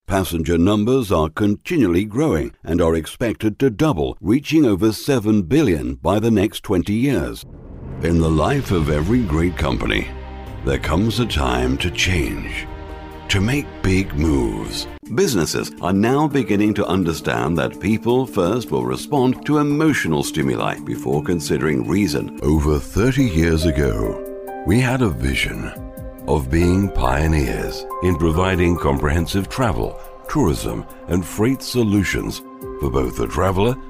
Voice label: Voice Talent Various Corporate